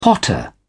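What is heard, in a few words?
"potter", British English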